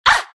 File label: naga pain; dota; naga siren